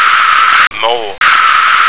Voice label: "mole", American